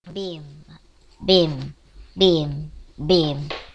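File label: "beam", spoken word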